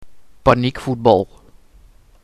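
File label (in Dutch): "Paniekvoetbal", Dutch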